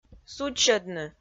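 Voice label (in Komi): "судзсьӧдны", Komi, female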